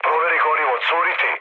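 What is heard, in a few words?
Serbian tank damaged mood med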